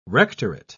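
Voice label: "rectorate", British English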